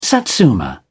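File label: "satsuma", British English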